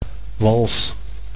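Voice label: "wals", Dutch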